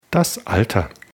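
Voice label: "alter", German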